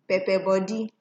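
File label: "pepperbody", Nigerian Pidgin, female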